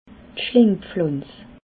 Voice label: "schlingpflanz", alsace

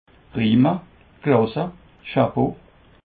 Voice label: "prima", Alsace

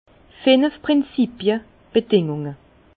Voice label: "prinzipie", Bas Rhin; vin; cinq principes

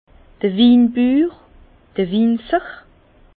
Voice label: "winbuur", Bas Rhin; vin; producteur

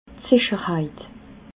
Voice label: "sicherheit", alsace

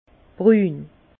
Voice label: "brun", Bas Rhin

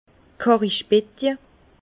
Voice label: "karrichbetje", Bas Rhin; vin; cuve de vendange

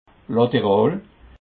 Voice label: "laterall", alsace

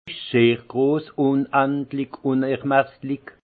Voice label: "sehr gross", alsace; immense; vin

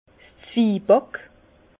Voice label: "zwieback", Bas Rhin; vin; biscuit

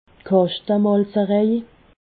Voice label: "kaschtemalzerej", alsace

